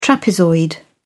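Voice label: "trapezoid", British English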